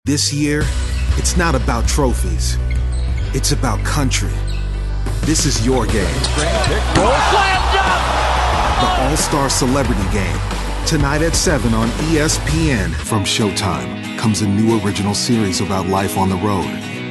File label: TV Promo